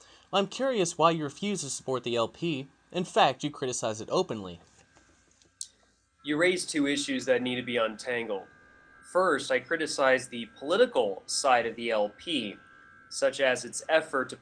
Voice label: Party Dialogue